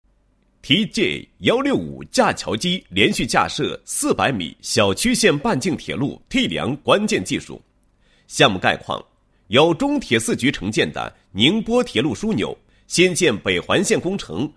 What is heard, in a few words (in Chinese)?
男 项目; 小曲线半径铁路T梁项目